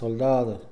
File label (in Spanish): "soldado", Mixe, hombre